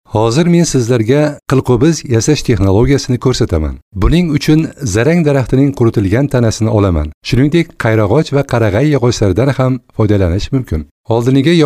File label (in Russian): Uzbekskij muzhskoj